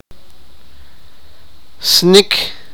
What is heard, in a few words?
sipakapense